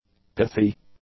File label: "pithy", english; adjectives